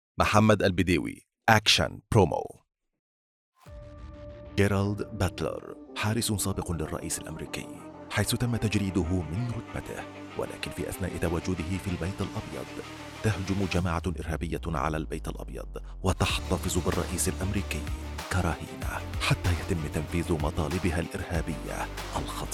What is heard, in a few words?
ACTION PROMO